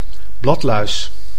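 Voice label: "bladluis", Dutch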